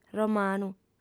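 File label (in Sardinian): "romanu", female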